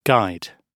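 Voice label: "guide", British English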